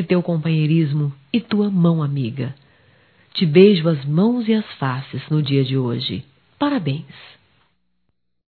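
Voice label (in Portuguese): Dia da Sogra, female, Telemensagens, Só Voz; Telemensagens 2017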